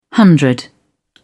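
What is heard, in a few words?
"hundred", English, British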